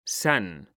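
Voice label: "san", Spanish, male; mekanta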